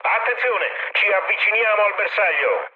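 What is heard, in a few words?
Italian near waypoint mood high, speech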